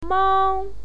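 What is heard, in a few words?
"mang", Mandarin Chinese, first tone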